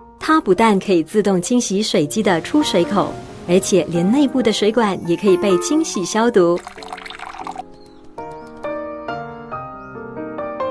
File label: Jazz TVC; CUCKOO